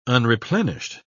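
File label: "unreplenished", British English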